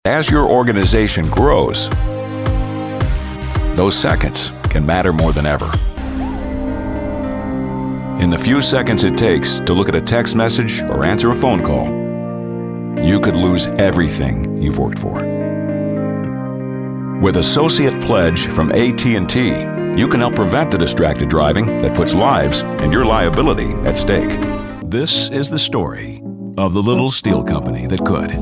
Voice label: Corporate